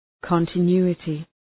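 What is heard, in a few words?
"continuity", English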